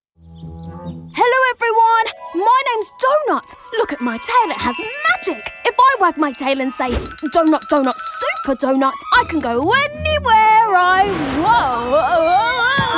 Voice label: animation